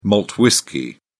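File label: "malt whisky", English, uk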